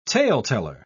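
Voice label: "taleteller", British English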